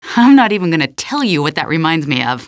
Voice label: question, female; Half-Life 2